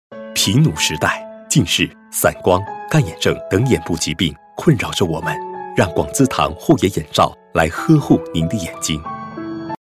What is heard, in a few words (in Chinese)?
男, 广告; 广资堂